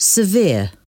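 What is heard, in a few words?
"severe", English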